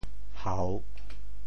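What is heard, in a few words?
"hau3", Teochew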